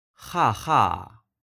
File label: laughing out loud; daily conversation; Vocabulary